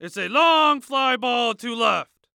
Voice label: Sports Human Voice Male Young Man Baseball Announcer